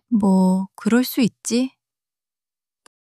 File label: sulk, monologue